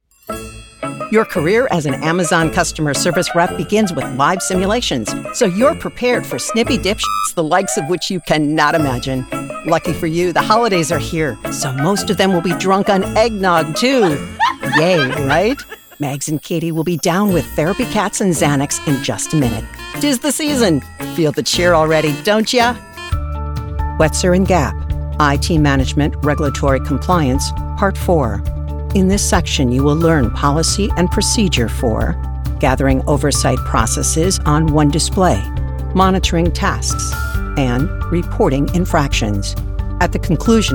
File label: E-Learning, Professional Female Voiceover